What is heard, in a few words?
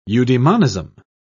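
"eudaemonism", British English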